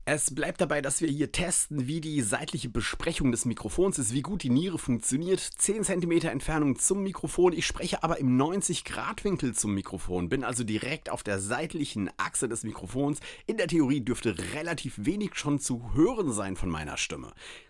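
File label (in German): blue spark sl test seite 90 grad, studiomikrofon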